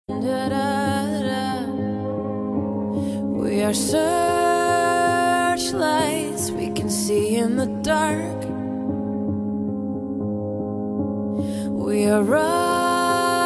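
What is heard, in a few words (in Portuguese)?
birthday NETO female, telemensagem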